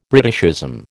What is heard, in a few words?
"Britishism", American